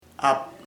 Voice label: daughter, chamacoco; hija

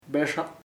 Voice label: long, chamacoco; largo

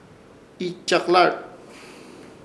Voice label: "Ichakalarrz", Ishir Chamacoco; panal

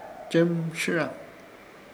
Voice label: "Chɨrmshɨ̃ra", Ishir Chamacoco; cruzado

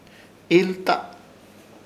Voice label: "Ihlta", Ishir Chamacoco; viento

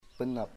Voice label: my sister, chamacoco

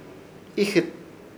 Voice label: "Ijɨt", Ishir Chamacoco; hijos

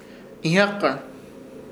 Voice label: "Ihyakkahɨr", Ishir Chamacoco; jacana

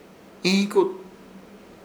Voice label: "Ihikut", Ishir Chamacoco; mollera

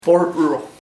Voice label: moss, chamacoco; musgo

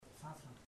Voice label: rain, chamacoco; Puerto Diana

